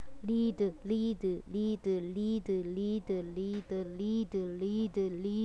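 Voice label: "lead", spoken word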